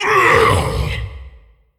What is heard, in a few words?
Male Demon Death